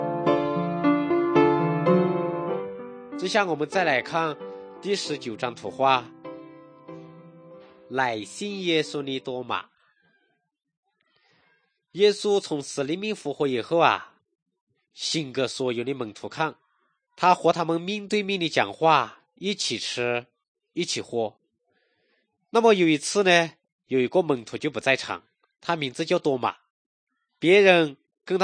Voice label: good news shan, drama